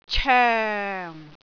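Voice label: "coeng4", Cantonese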